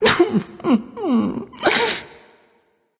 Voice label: boohoo; smite; sylvanus

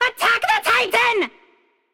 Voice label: vox attack m; smite; cupid

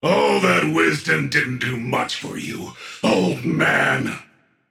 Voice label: taunt directed; Sylvanus; Smite; bakasura

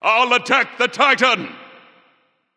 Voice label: vox self attack; smite; ra; voicepack

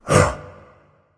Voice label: grunt; smite; hera; argus

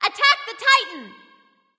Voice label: vox attack m; smite; nemesis; voicepack

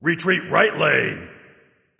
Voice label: vox retreat; smite; xingtian

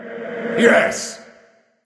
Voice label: yes, emote; smite; xingtian

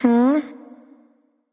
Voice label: suspicious; smite; voicepack; mercury

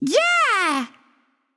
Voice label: yes, emote; smite; xingtian

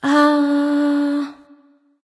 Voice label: thinking; smite; neith

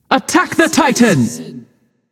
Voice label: vox attack m; smite; nuwa; voicepack

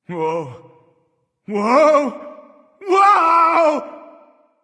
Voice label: fear; smite; Ullr

